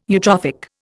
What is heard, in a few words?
"eutrophic", Indian